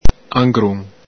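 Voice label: "angrun", Veneto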